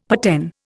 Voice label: "paten", Indian; Dictionary

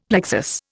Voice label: "plexus", Indian; Dictionary

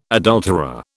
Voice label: "adulterer", British

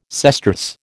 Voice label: "sesterce", American

